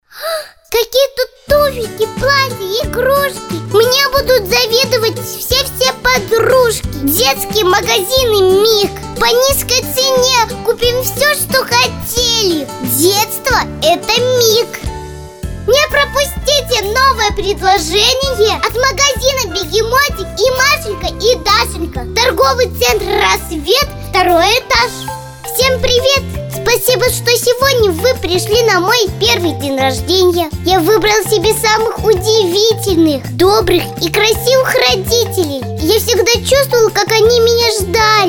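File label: girl 7 years old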